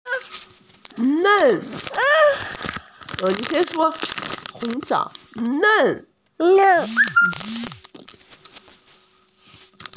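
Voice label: "nen4", Mandarin Chinese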